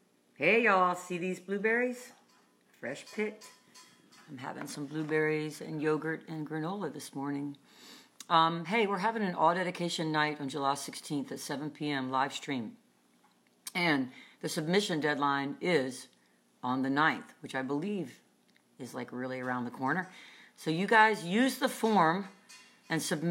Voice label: promo